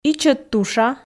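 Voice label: "ичӧт туша", Komi, female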